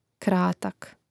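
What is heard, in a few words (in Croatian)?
"kratak", Croatian; Mrežnik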